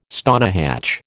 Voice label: "stonehatch", American

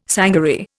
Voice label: "sangaree", Indian

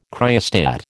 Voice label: "cryostat", American

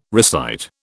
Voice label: "recite", British

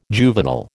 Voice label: "juvenile", American

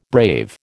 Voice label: "brave", American

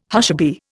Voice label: "hushaby", Indian

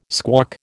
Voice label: "squawk", American

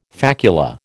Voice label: "facula", American

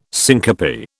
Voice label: "syncope", British

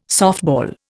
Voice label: "softball", Indian

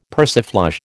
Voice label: "persiflage", American

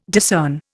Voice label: "discern", Indian

